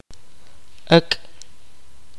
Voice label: kaqchikel; chicken